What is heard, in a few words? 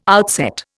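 "outset", Indian English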